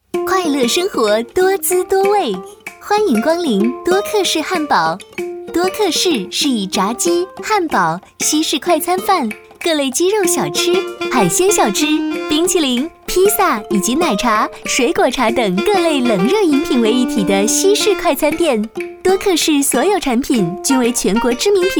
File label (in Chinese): 女 专题 介绍 愉快轻松; 多客士